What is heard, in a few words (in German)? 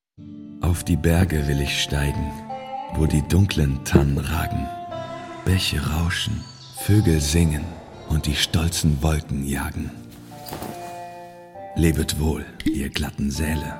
Whisky-Werbung; LOFT VOICES